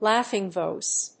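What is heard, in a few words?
"laughing voice", English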